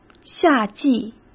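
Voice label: "夏季", Mandarin Chinese; hsk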